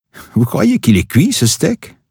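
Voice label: French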